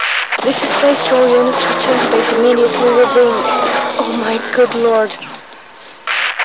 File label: cop distress; svencoop